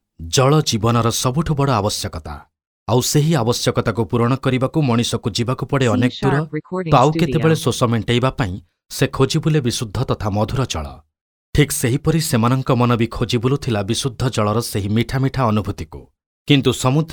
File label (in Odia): Oriya male